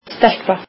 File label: icelandic girl